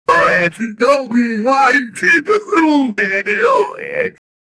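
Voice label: anime boy excited happy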